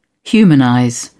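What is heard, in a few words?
"humanize", English, uk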